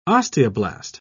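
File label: "osteoblast", British English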